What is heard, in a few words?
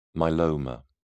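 "myeloma", British English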